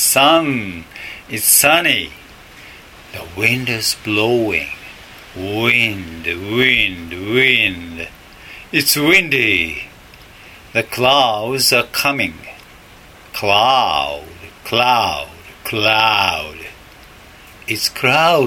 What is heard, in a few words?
What a Beautiful Day Natural